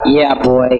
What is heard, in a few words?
"yeah boy", voice; ooh ahh